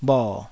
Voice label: "bho3", taiwanese Syllables

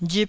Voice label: "rip1", taiwanese

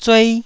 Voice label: "zuei1", taiwanese

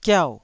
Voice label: "giau4", Taiwanese syllable

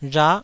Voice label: "rha9", taiwanese

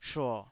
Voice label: "shuo3", taiwanese

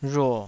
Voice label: "rhuo3", taiwanese

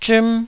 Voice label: "zhim1", Taiwanese syllable

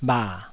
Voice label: "bha3", taiwanese

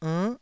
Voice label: "ernn9", taiwanese syllables

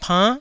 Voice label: "pann9", Taiwanese

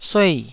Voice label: "suei4", taiwanese Syllables

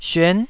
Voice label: "syuan9", taiwanese Syllables

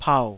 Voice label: "pau3", taiwanese

